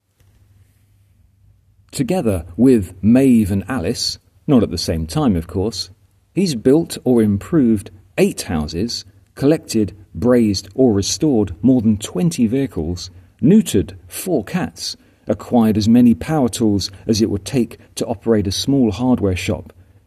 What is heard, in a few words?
voiceover